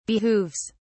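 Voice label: "behooves", British English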